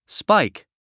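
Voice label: "spike", English word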